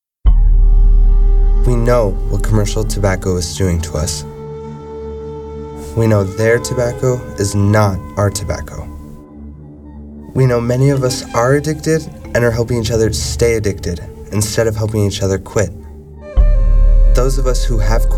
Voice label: find your power male